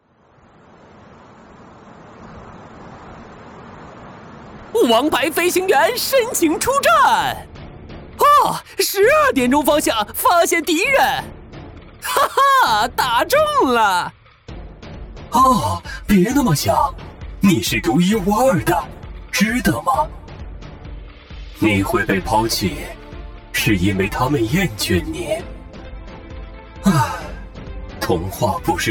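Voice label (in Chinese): 角色游戏特殊声线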